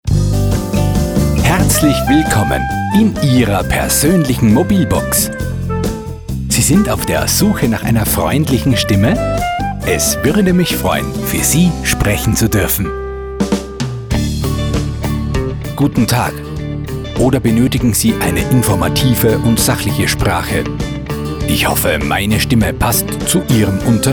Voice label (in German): Telefon